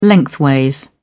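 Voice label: "lengthways", British English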